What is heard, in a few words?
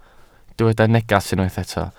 "neges", Welsh